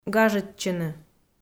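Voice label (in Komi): "гажӧдчыны", Komi, female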